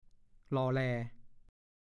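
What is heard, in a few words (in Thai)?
"หล่อแหล่" ภาษาโคราช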